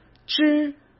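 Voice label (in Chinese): "枝", Mandarin Chinese; chinese flashcards; hsk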